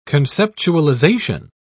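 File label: "conceptualization", British English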